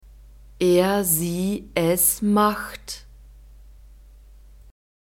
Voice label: "er sie es macht", German

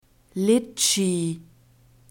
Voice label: "litschi", german; fruits

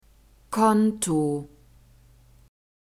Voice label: "konto", german

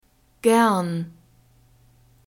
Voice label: "gern", German

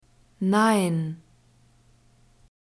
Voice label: "nein", german

